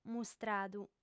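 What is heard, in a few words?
"mustradu", female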